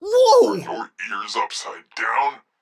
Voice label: phone bad upside; Blind Drive

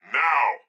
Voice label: tutorial police now; Blind Drive

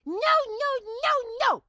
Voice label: grandma chopper missed; Blind Drive

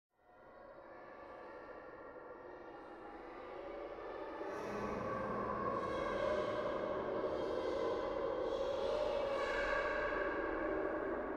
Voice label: Children Talking In Heavy Reverb Eerie Drone

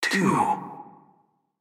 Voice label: Announcer; Pitch Black Audio Pong

